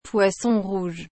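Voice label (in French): "poisson rouge", French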